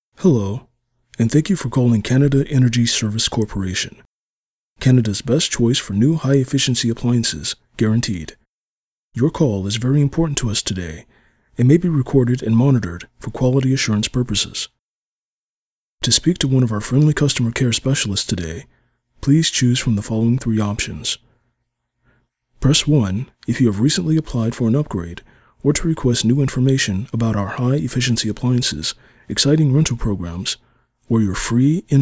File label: telephony